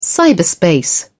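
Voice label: "cyberspace", British English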